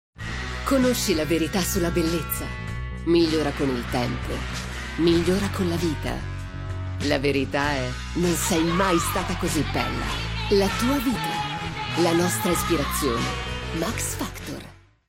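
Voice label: Italian, general; GoLocalise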